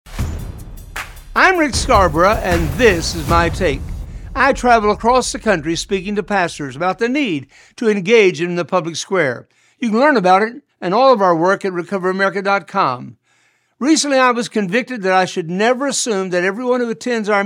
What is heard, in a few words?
Radio Spot; Dr. Rick Scarborough's quick one minute "takes"; Do you know Jesus